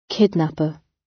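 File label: "kidnapper", British; Crime and punishment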